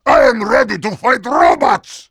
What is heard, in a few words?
Heavy say ready